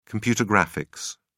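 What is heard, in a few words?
"computer graphics", English, British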